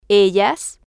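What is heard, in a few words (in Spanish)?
"ellas", Spanish, female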